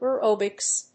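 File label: "aerobics", English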